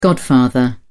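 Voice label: "godfather", British English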